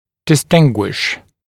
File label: "distinguish", dental english; glossary